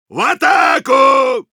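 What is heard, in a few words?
soldier battlecry, Russian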